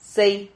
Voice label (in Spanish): "sei", Silabas